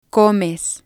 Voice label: "comes", Spanish